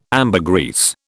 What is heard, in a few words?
"ambergris", British